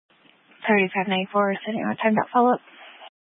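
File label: Police Dispatch, Scanner audio recording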